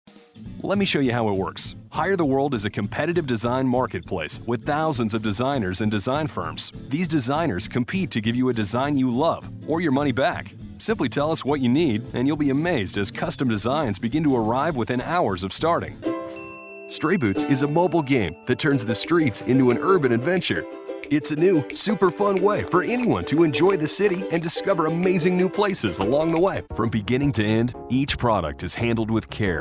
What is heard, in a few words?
Friendly 25-40 Hip Friendly Authoritative